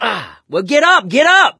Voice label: revive friend loud, survivor voice mechanic; source engine; left 4 dead 2

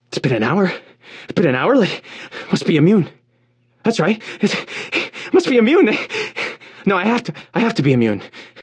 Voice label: radio initial broadcast

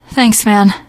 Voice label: thanks, teen girl; survivor voice

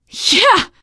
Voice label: nice job, teengirl; survivor voice

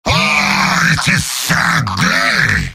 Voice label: jeers; heavy; video games; source engine; tf; mvm

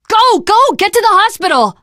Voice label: world hospital, teen girl; survivor voice

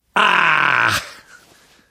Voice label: reaction negative, survivor voice

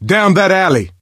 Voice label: misc directional, coach, survivor voice

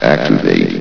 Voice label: "activate", vox; Source Engine; bshift

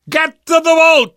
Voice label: arrive boat, survivor voice

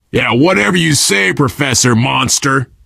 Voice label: vampires, player survivor voice biker

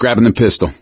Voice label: take pistol, survivor voice; source engine; left 4 dead 2; gambler

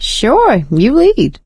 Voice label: lead on, survivor voice

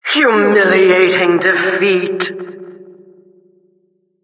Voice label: announcer flawless defeat

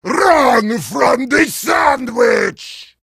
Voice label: heavy sandwich taunt, vo

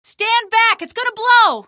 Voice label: female; hl2; streetwar; tunnel; Source engine

